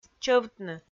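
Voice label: "чӧвтны", Komi, female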